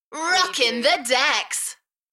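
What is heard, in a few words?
British female Rockin the decks Enthusiastic; beanstalk audio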